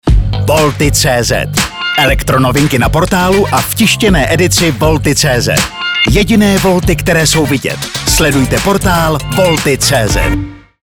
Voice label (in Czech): Rozhlasovy spot